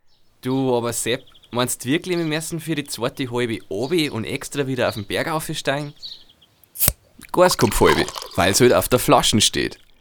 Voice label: Werbung Reminder; Irlbacher

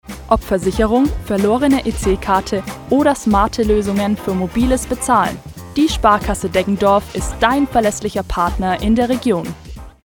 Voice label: Werbung Reminder; Sparkasse

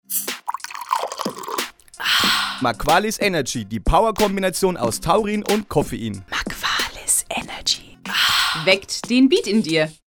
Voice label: Werbung Reminder

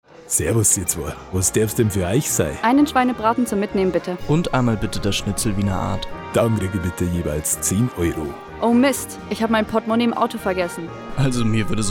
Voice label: Werbung Sparkasse

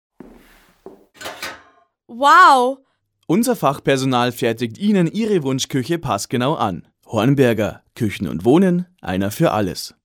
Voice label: Werbung Reminder; Hornberger

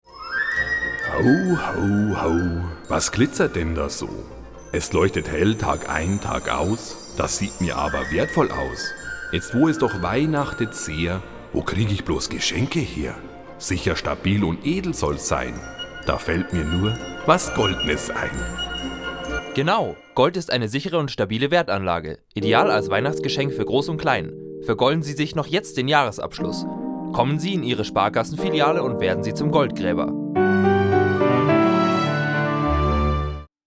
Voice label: Werbung Weihnachten; Sparkasse

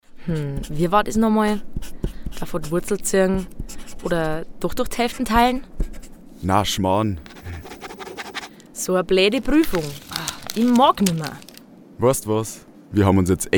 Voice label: Werbung; Acrobraeu

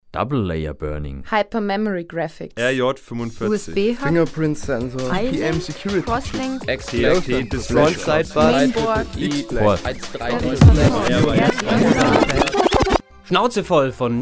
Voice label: Werbung

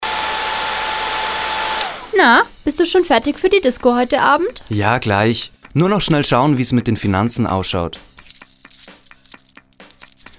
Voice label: Werbung Sparkasse

